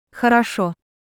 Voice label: Russian Good well ok agreed; Greetings

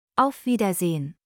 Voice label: German Goodbye; Greetings

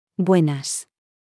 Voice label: Spanish Hello informal; Greetings

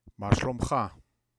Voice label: Hebrew How are you male; Greetings

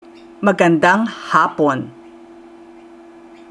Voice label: Tagalog Good afternoon; Greetings

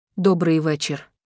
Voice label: Ukrainian Good evening; Greetings

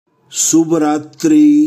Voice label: Gujarati Good night; Greetings

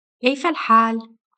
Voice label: Arabic How are you General; Greetings

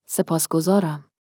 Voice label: Farsi Thank you; Greetings

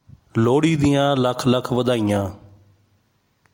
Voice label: Punjabi Happy Lohri; Greetings

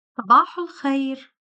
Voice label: Arabic Good morning; Greetings

